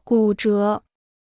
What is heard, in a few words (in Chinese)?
"骨折", Chinese